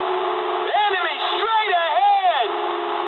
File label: eng enemy detected mood high, speech eng